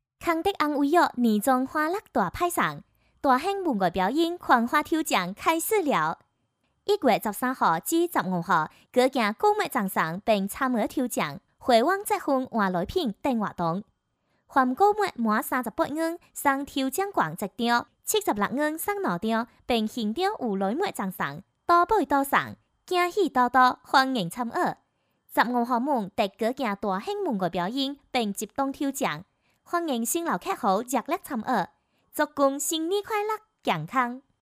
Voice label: 广告 医院医药 潮汕方言 医药 可爱, 女国; 潮汕方言康德安医药

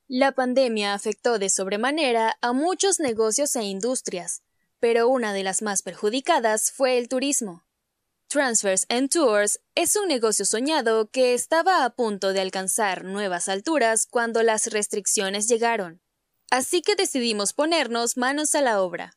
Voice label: 西班牙语女 外语 小语种 年轻

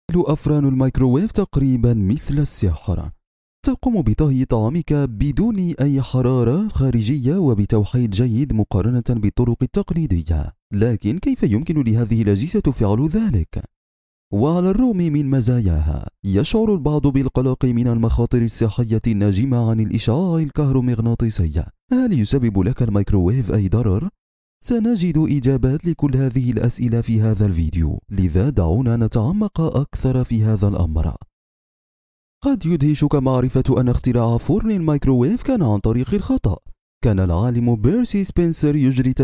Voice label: 阿拉伯语男 外语 小语种

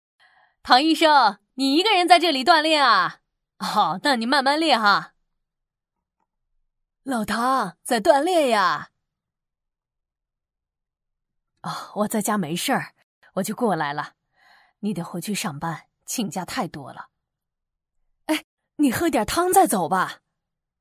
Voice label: 动画 角色 老母亲 妻子

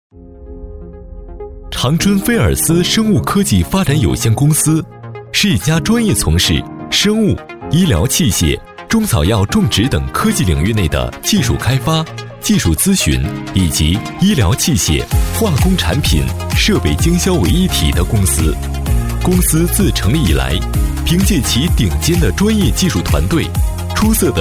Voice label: 专题 企业 轻快; 长春菲尔思

